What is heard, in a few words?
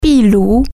"fireplace", Chinese